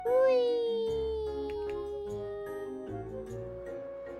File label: noises weeeeeeeee